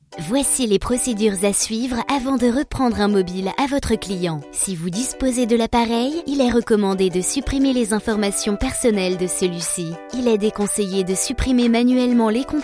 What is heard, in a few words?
elearning; poulayotstudios